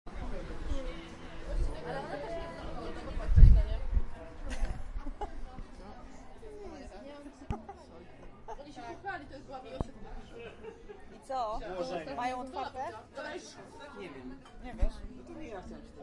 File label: talking people; social-ear-pt-br